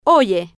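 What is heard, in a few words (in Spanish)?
"oye", Spanish, female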